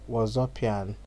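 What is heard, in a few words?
"wazupian", Nigerian Pidgin, male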